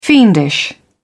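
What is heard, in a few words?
"fiendish", English, British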